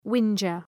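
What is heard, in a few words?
"whinger", English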